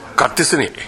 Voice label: "gattesune", minamiyamagata